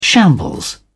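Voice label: "shambles", British English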